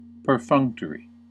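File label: "perfunctory", US English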